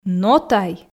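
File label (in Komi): но тай, Komi, female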